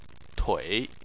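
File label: "tui", Chinese, third tone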